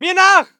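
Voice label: voice german infantry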